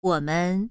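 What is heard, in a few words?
"wo3men5", Chinese, female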